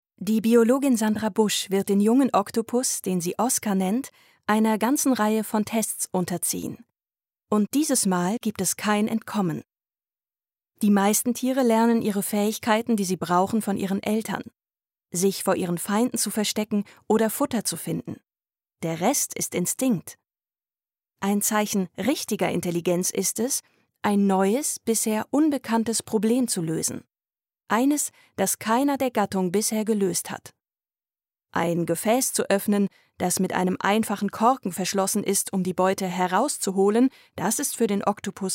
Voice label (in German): Doku